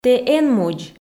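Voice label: "тэ эн мудз", Komi, female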